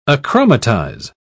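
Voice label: "achromatize", British English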